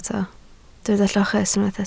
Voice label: "lloches", Welsh